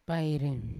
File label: "pairi" full, Yawa